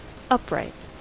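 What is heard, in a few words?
"upright" English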